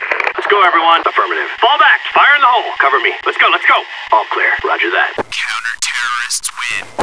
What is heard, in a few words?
radiopack no music; skins cstrike